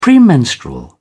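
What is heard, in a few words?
"premenstrual", British English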